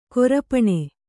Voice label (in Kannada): "ಕರಪಾಣಿ", Kannada